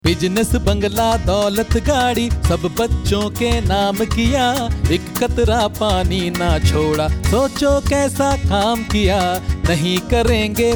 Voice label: water conservation urdu; greengood